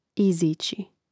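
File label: "izići", Croatian; mreznik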